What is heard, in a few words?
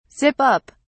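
"zip up", British English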